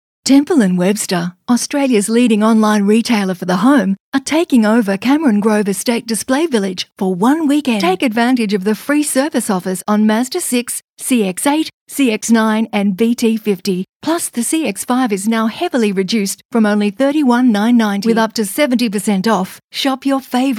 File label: Soft Sell